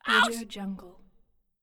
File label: goblin says ouch